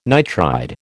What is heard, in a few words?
"nitride", American